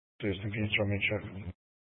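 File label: Sheriff Dispatch, Scanner audio recording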